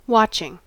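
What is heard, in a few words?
"watching", American English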